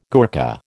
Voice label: "Gurkha", American